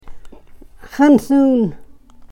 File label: "xanthuun", karuk